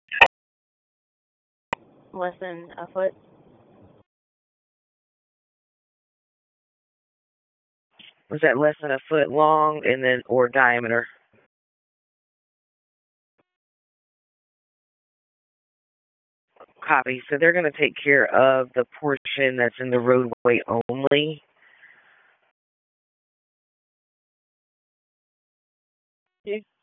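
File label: Raleigh Police Northeast District Dispatch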